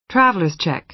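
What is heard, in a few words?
"traveler's check", American English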